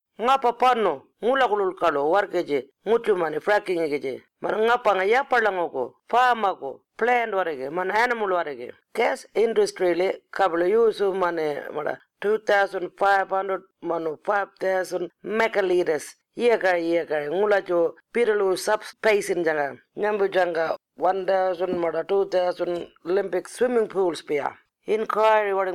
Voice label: Warlpiri; Fracking Draft Final Report; Water Supply